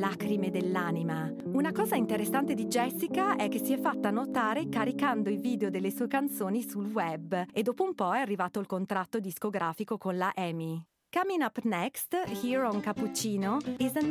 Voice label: female italian english with italian accent and italian radio; promo; lufthansa